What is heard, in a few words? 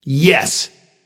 emote yes; smite; vulcan